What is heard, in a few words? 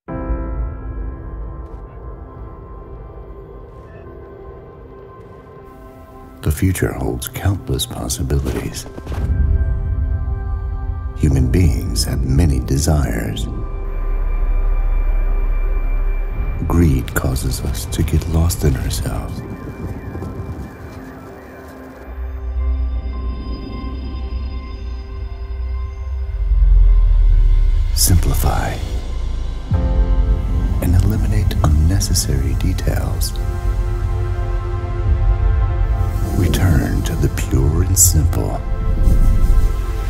Compelling Captivating Authentic Gripping Powerful; Oppo